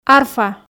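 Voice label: "арфа", Komi, female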